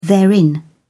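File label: "therein", British English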